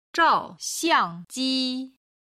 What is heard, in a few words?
"zhao4xiang4ji1", chinese